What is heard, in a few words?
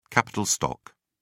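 "capital stock", British English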